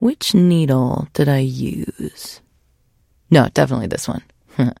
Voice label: trader monolog; 商人杰恩（七日杀）